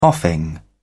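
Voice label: "offing", British English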